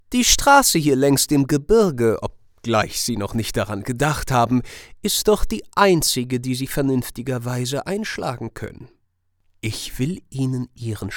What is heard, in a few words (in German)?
HOeRSPIEL; Schlemihl; Teufel; LOFT VOICES